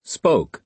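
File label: "spoke", American English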